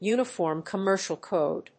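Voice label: "Uniform Commercial Code", English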